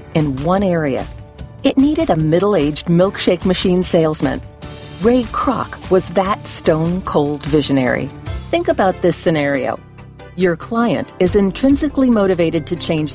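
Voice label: American English narration, female; engelsk-us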